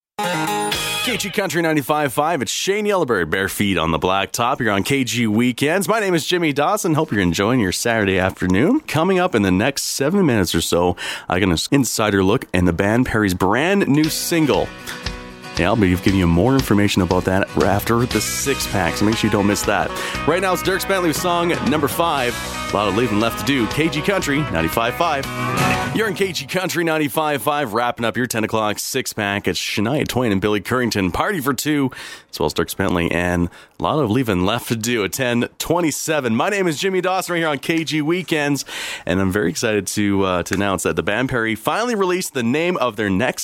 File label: Radio